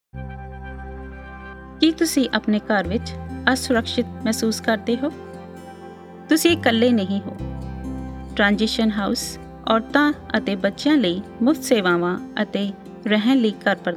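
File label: 30sec RADIO PSA Punjabi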